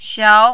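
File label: "xiao2", mandarin, rising tone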